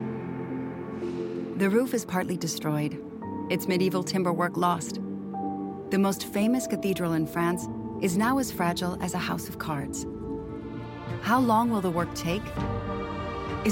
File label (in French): Documentaire ANG; voix-off; National Geographic; Saving Notre Dame